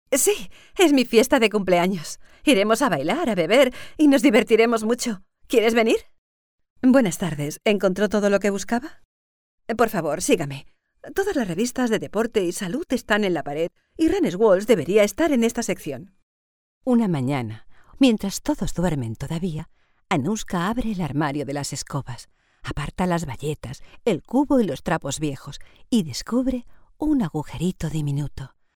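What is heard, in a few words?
Spanish Spain, female, voice over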